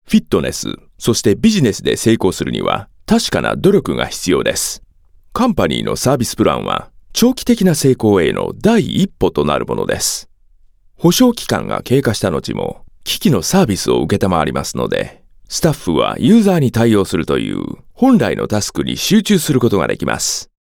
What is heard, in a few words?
Japanese Male